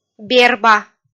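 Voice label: "берба", Komi-Zyrian, female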